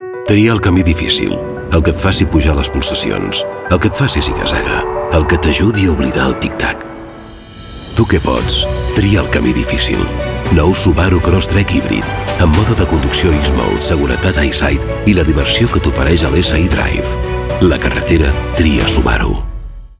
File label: radio, catalan; SUBARU CROSSTRECK